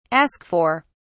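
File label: "ask for", English, British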